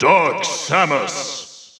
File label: English Announcer; Dark Samus; SSBU